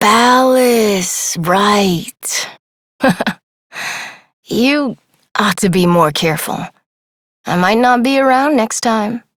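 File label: Adult, English; New War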